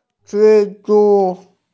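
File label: "spider", Mandarin; zhi1zhu1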